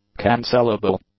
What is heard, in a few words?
"cancelable", English; adjectives